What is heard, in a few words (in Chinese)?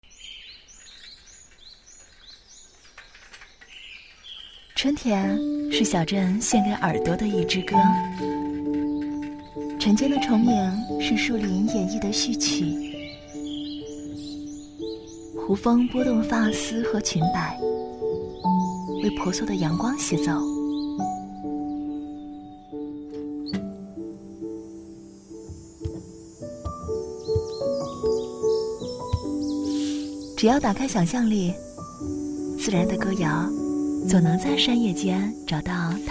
女, 国语配音; 小镇的春天